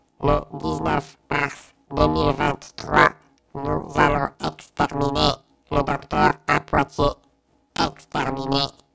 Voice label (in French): message dalek